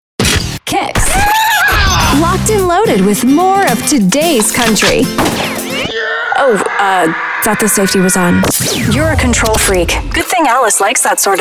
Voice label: imaging